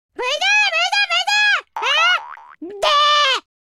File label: taunt, speech, French